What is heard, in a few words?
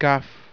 kaf, heb